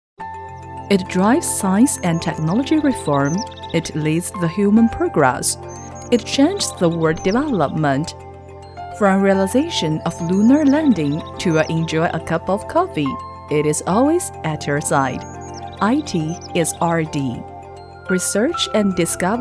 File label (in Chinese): 英女 科技类英语音